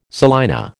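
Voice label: "salina", American English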